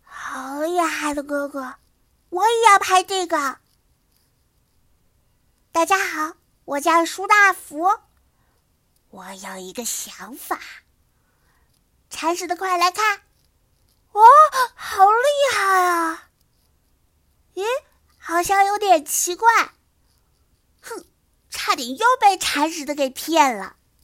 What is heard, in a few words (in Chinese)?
男娃